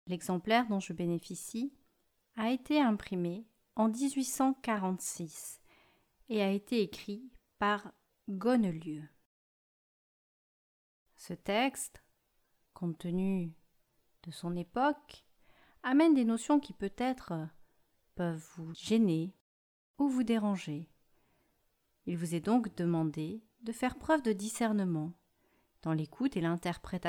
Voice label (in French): avertissement imitation